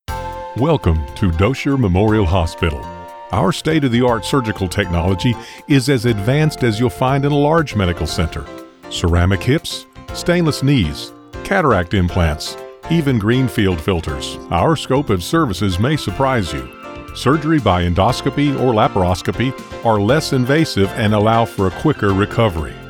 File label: Hospital Surgery Commercial; Dosher